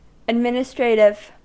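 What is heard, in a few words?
"administrative", US English; pronunciations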